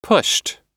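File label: "pushed", American English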